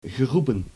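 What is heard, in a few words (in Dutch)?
"geroepen" Dutch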